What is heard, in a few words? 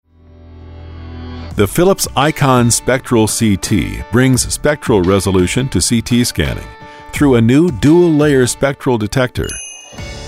eLearning; eLearning Demo